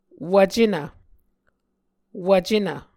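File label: "wvcenv", Muscogee word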